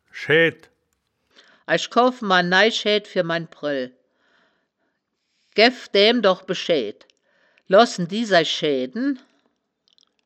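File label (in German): "schaeaed", Wittlicher Wörterbuch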